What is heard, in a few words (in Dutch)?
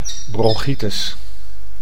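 "bronchitis", Dutch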